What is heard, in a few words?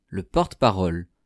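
"le porte parole", French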